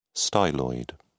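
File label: "styloid", English, uk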